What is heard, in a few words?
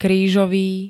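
"krížový", Slovak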